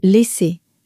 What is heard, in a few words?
"laisser", French